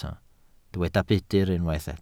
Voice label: "budr", Welsh

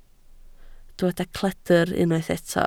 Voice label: "cledr", Welsh

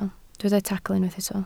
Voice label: "tacl", Welsh

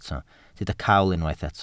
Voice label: "cawl", Welsh

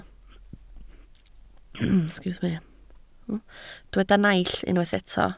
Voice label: "naill", Welsh

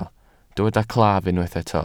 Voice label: "claf", welsh

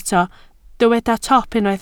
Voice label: "top", Welsh

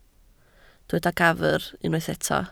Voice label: "gafr", Welsh

